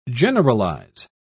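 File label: "generalise", British English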